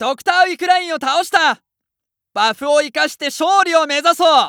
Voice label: Announce Wickeline Die, JPN; Eternal Return